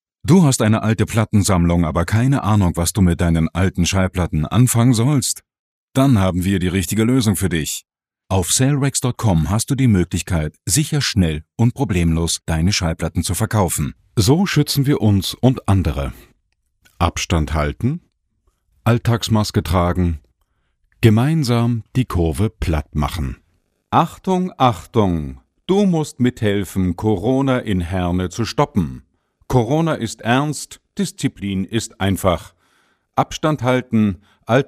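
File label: German male; lektoring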